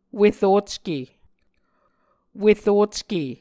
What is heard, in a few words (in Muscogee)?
"werocke", Muscogee